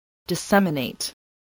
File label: "disseminate", spelling